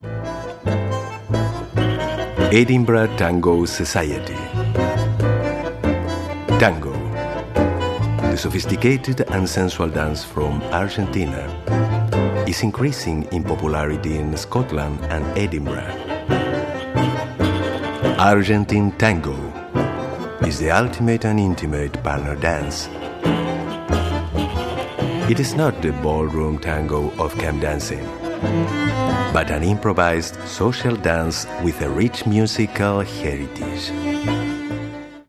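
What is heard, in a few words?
Latin American English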